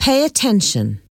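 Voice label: "pay attention", English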